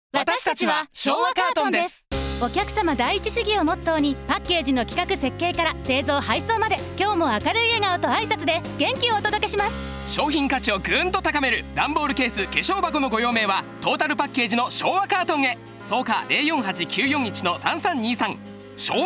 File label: radio